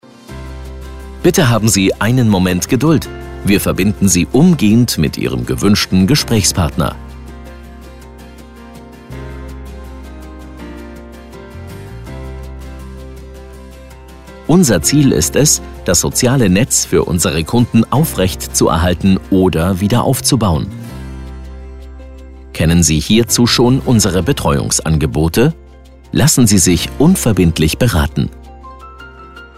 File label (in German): Ansage; Telefonansage Pflegedienst – Sozialbereich